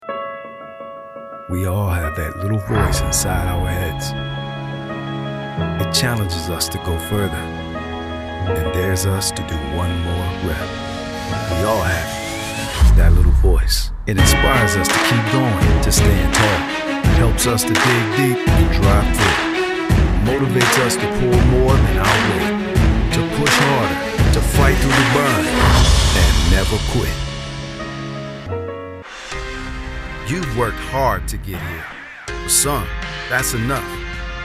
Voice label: edgy motivational